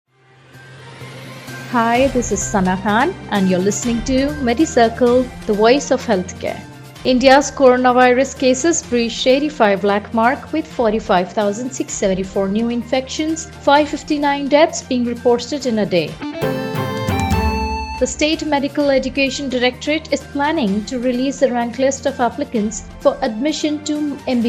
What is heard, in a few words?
english news